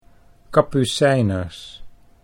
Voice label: "kapucijners", Dutch